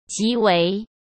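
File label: "极为", Mandarin Chinese; chinese flashcards; hsk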